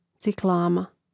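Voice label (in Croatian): "ciklama", Hrvatski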